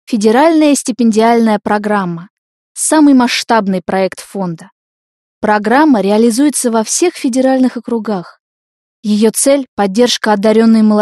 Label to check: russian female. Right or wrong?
Right